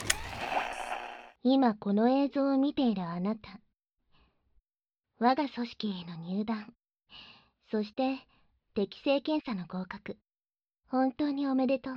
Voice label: オープニング 我が組織へようこそ（バイノーラル 効果音あり）

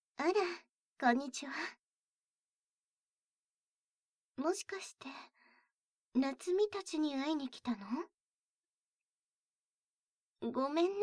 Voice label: 耳舐め手コキされるオナサポボイス; 長女

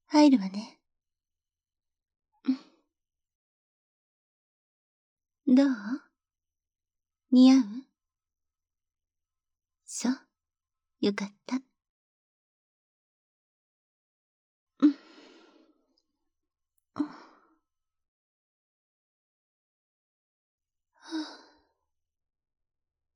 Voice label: 姉さんと布団の中へ, ASMR

